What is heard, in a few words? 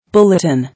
"Bulletin", English